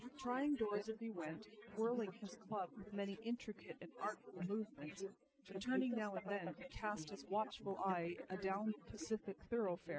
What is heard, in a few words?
female4 inst sim; SiSEC08; SiSEC underdetermined